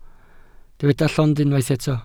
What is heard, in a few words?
"llond", Welsh